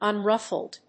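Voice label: "unruffled", English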